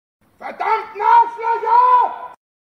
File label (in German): verdammten arschlocher sms ringtone; drachenlord